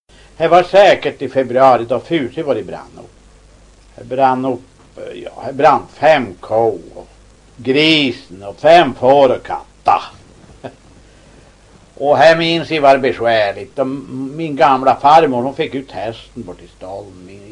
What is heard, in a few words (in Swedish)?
Pitea, dialekter